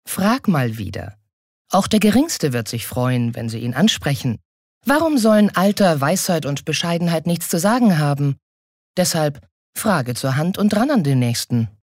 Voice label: Werbung Ueberheblich

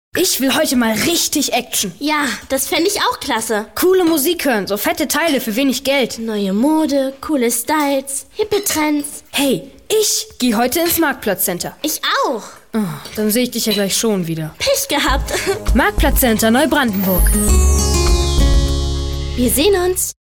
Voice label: Werbung Kindlich

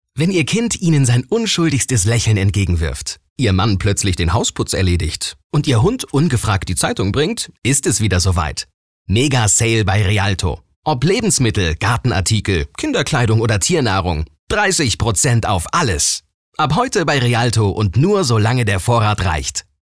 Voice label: Freundlich; Realto

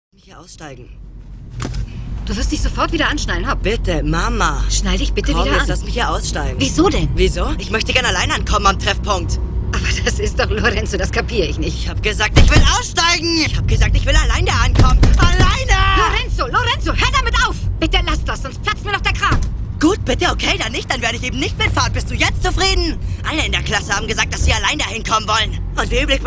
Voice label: Schauspiel, Dramatisch

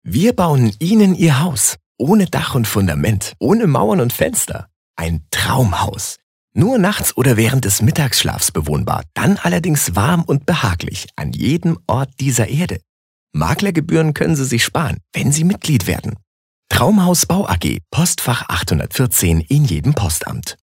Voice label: Werbung Sanft

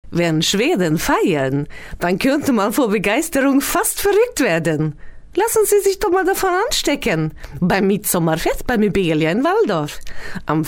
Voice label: Akzent Schwedisch

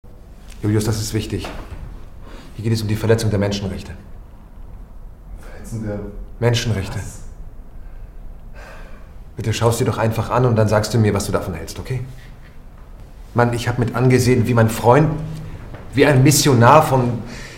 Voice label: Fremdtake Dynamisch

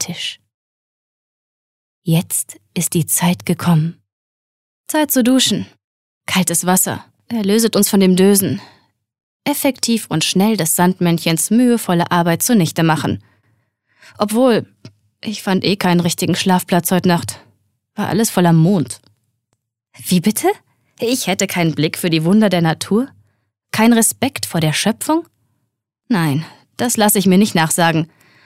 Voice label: Schauspiel Variabel

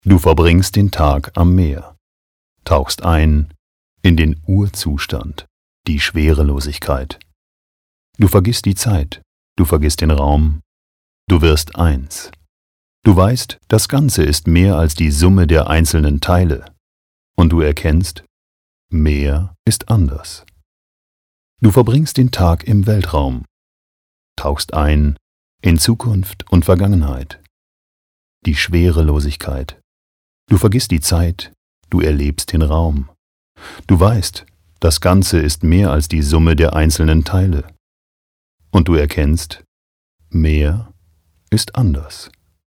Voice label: Schauspiel Neutral

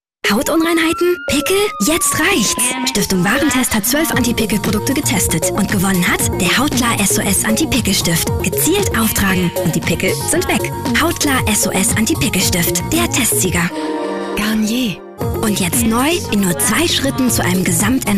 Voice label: Werbung Freundlich

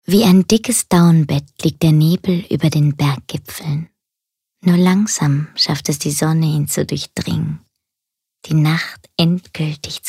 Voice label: Schauspiel Sanft

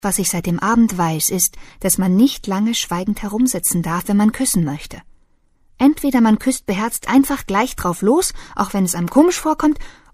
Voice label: Schauspiel Sanft

